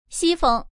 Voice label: "west", woman

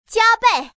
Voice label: "double", woman

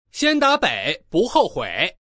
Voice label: north, voice man

